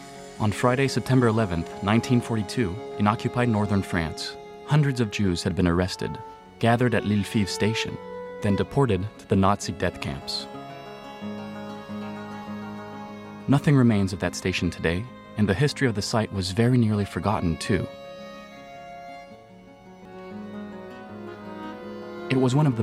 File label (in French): Narration docu WW2 US; France 5